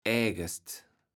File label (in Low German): "ägest", kaevels platt